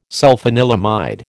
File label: "sulfanilamide", American